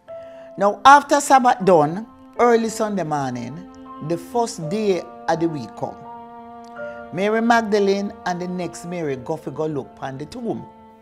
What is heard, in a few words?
verse, jamaican creole